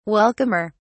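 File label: "welcomer", British English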